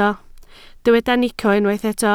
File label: "nico", Welsh